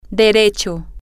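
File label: Spanish, straight ahead, female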